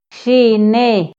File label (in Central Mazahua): "xine", Mazahua; INALI; AprendeMazahua